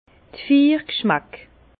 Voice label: "viergschmack", Bas Rhin; les quatres goûts; vin

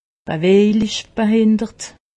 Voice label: "bewejlich", alsace; medecine

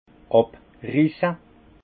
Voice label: "abrisse", alsace; vin; arracher les sarments

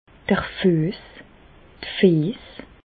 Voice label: "d'r Füess, d' Fiess", Bas Rhin; pieds; medecine

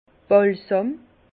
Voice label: "balsam", Bas Rhin; vin

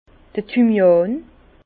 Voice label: "thymian", Bas Rhin

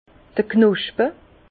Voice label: "knospe", Bas Rhin; vin; bourgeons

